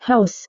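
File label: "haus", Indonesian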